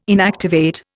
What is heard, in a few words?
"inactivate", Indian